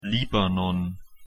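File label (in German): "libanon", German; pronunciation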